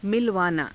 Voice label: "milavaanaa", Hindi; मिलवाना; [他動]会/合わさせる

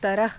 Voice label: "taraha", hindi; तरह; [女]種類，方法

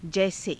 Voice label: "jaise", Hindi

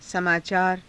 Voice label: "samaacaara", hindi; समाचार; [男]ニュース

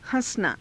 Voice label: "ha~sanaa", Hindi

